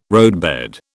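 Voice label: "roadbed", British English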